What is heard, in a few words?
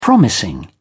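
"promising", British English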